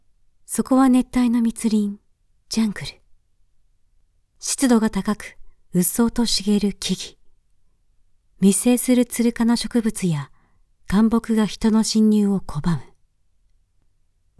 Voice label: Documentary raw